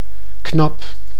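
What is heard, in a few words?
"knap", Dutch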